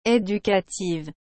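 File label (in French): "educative", French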